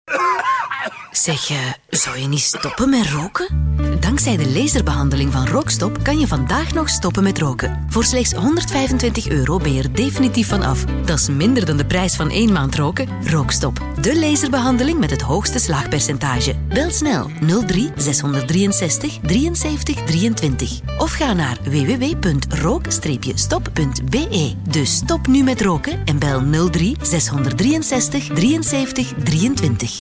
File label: RADIO SPOT